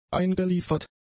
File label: "eingeliefert", German; adjectives